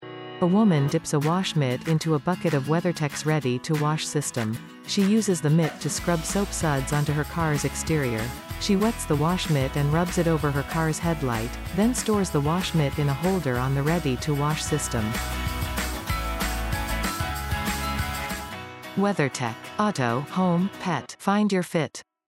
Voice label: Wash Mitt short promo